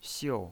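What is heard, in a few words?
"xiu", pinyin voice, fourth tone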